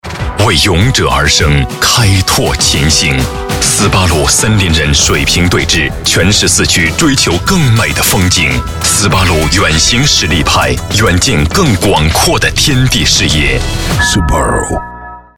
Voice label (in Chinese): 男 广告 汽车大气动感广告